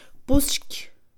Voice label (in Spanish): "vapor", Mixe, Mujer; INALI